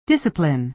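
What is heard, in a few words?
"discipline", American English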